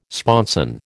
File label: "sponson", American